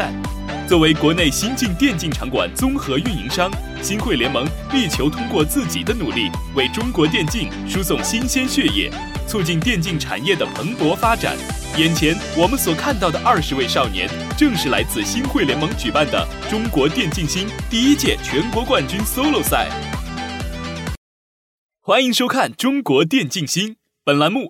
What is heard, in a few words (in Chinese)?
男 游戏解说 电竞, 国语男声配音; 中国电竞星